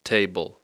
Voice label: "table", English

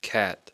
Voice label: "cat", English

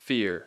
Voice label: "fear", English, E long

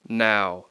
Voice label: "now", English

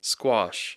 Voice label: "squash", English

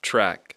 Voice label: "track", English; Speech Pro

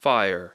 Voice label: "fire", English

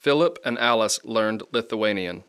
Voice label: "Phillip and Alice learned Lithuanian", English

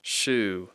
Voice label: "shoe", English

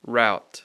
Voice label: "route", English

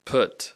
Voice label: "put", English

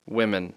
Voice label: "women", English; Speech Pro

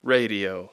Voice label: "radio", English

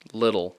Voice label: "little", English, D (sound)

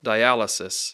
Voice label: "dialysis", English

